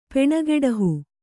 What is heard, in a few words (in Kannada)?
"ಪೆಣಗೆಡಹು", Kannada; peṇageḍahu; to kill (a person).